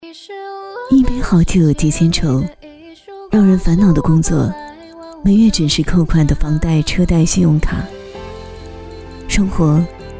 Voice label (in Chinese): 女 专题 温柔